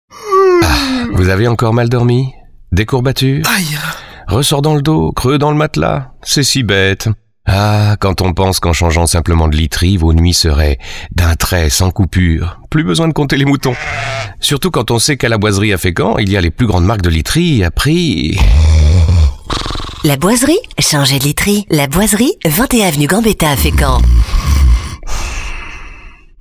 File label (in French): radio pub spot